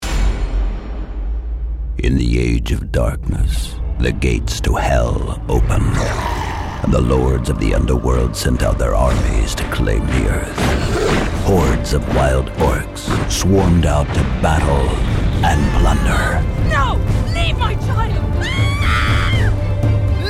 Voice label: us movie trailer style

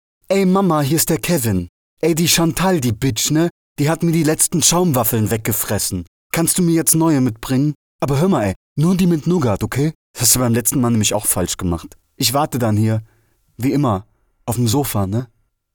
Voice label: character; kevin

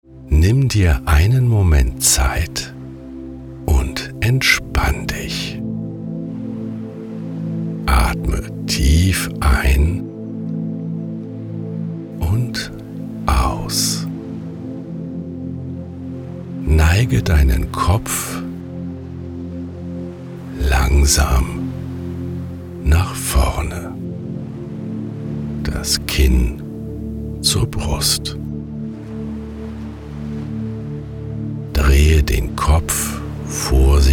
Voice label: meditation mindfulness

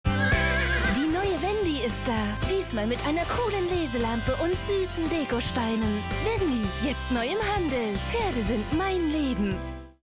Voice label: tv spot english

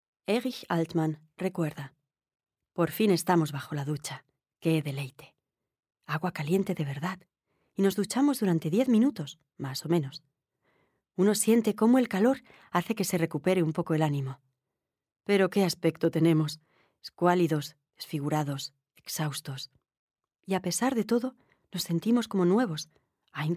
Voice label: audiobook spanish

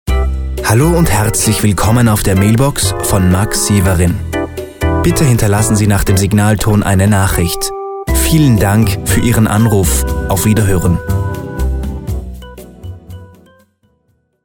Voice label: mailbox

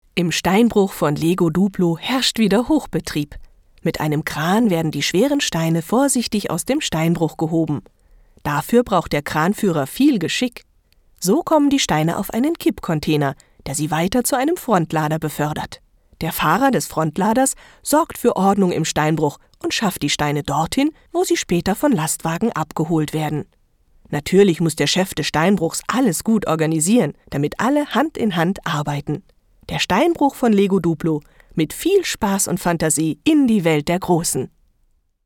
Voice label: voice over; lego duplo

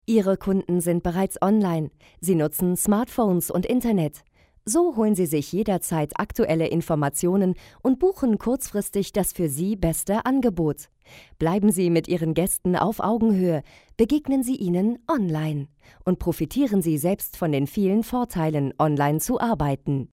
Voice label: e-learning

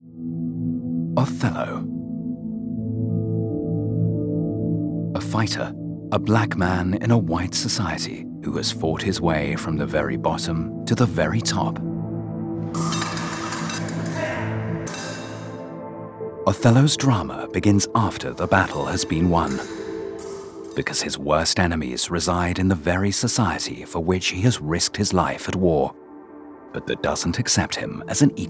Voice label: documentary; the legend of othello

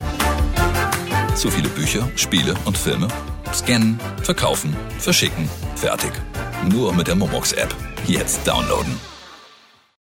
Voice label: tv spot; momox

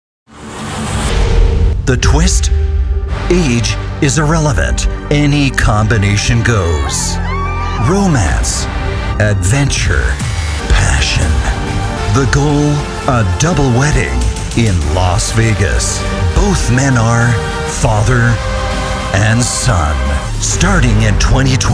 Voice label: trailer; constantin entertainment; joyn

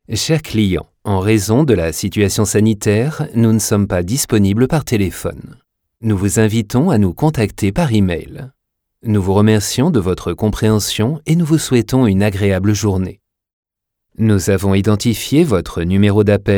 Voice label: phone

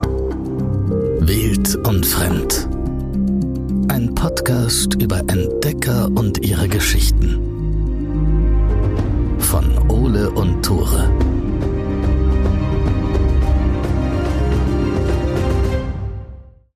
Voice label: podcast intro

